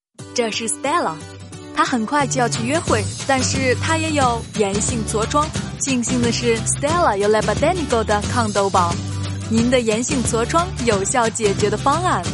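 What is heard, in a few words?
Chinese Mandarin F Corporate, female; voice over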